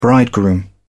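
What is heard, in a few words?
"bridegroom", English, British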